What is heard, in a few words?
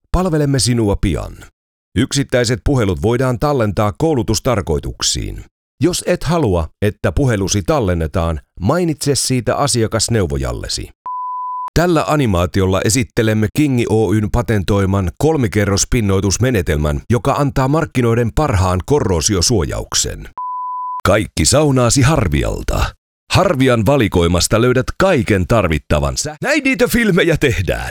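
e-learning, Finnish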